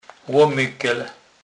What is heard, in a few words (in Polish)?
"lomykel", kurpiow